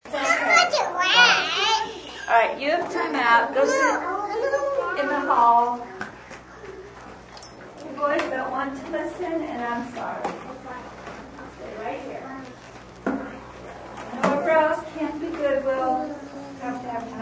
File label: Preschool Time Out, Teacher gives kid time out at preschool; Schools; Toddler nonsense sound